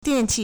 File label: "dian4qi4", Chinese